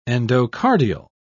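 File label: "endocardial", British English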